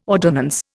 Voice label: "ordonnance", Indian

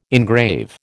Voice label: "engrave", American

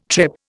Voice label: "trip", Indian

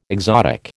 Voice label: "exotic", American

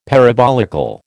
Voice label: "parabolical", American

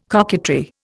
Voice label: "coquetry", Indian

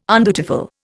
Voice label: "undutiful", Indian

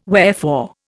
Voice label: "wherefore", Indian

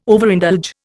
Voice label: "Overindulge", Indian

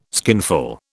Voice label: "skinful", British

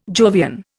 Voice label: "Jovian", Indian

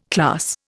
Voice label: "class", Indian

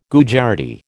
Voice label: "Gujarati", American

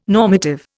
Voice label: "normative", Indian

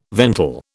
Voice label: "ventil", British

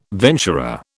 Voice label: "venturer", British

